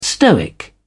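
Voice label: "stoic", English, British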